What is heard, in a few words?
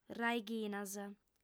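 "raighinas", female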